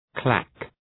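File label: "clack", English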